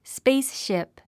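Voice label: "spaceship", 英単語 発音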